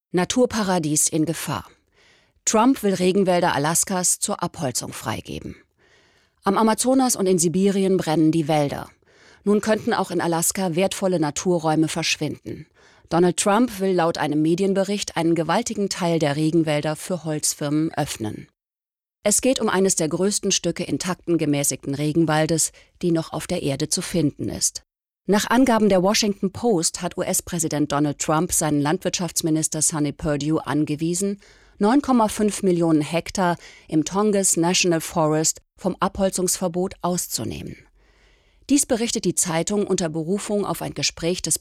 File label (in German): Doku; Trump Regenwald Alaska; LOFT VOICES